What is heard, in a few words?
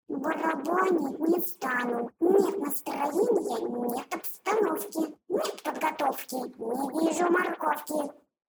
cartoon voice; cartoon voice in adobe audition